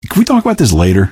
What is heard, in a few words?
answer, male; Garry's Mod; hl2